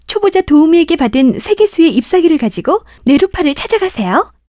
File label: tutorial voice; lineage2